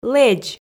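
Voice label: "лэдз", Komi, female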